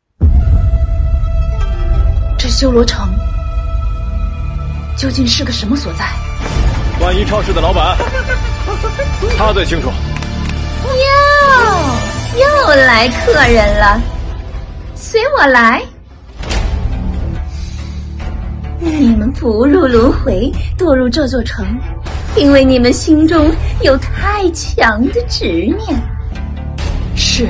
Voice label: 一人分饰所有女声; 一人分饰所有女声青蛇劫起

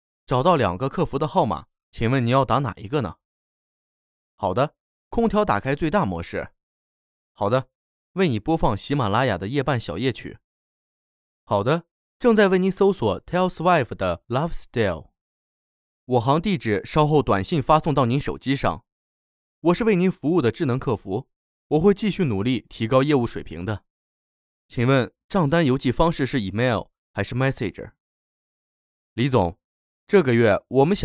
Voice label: 活泼

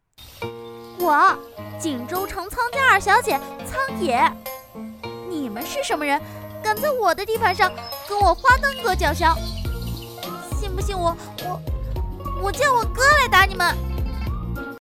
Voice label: 少女音

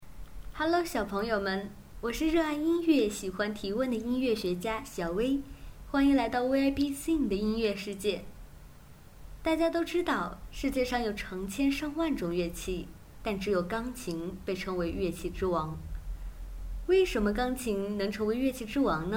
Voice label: 女儿童节目试音

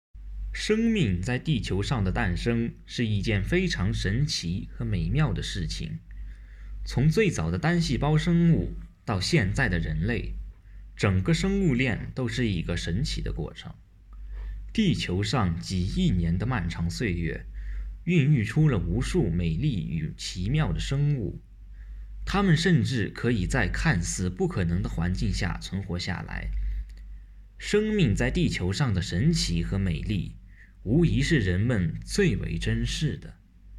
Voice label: 纪录片节选

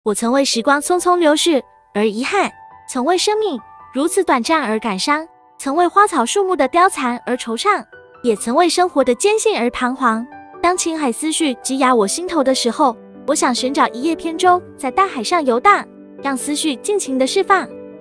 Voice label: 活泼女孩

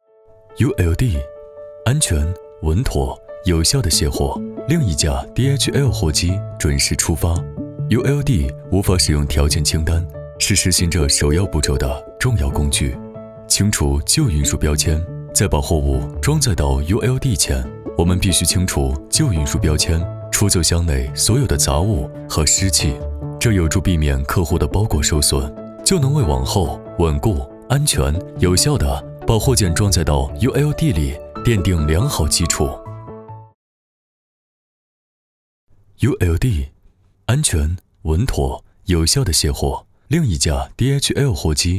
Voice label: 科技感; 卸货

